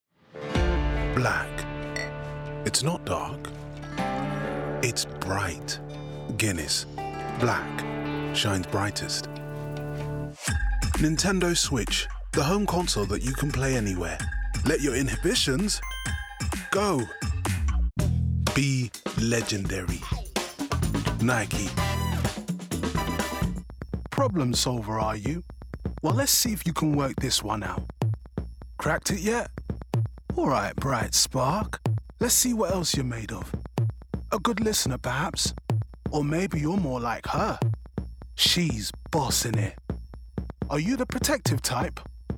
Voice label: Commercial; EBU128 -23LUFS